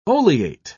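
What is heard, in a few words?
"oleate", British English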